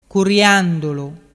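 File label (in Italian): "curiandulu", Vernazzese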